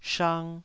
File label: "shang2", taiwanese